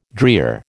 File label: "drear", American